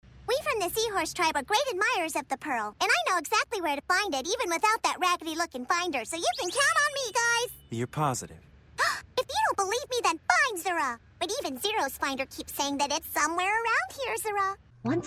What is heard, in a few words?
character vo